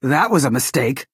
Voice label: female oops